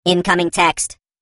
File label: Incoming Text Voice Alert